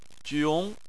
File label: "jiong2", Chinese